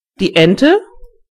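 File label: duck mother, German voices words; gcompris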